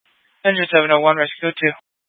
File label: Phx PD Fire Dispatch; RWC